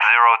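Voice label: "0", English; Digits; Allied Flight; DCS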